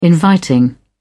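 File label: "inviting", British English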